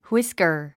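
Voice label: "whisker", English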